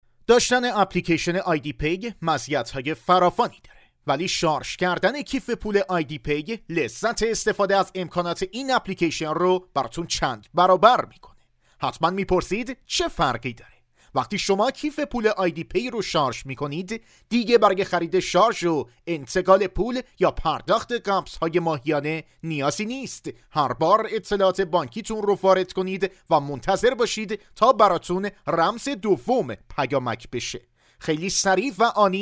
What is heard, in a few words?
narrator